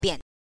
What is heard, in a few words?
"bian", spoken chinese